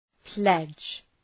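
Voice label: "pledge", English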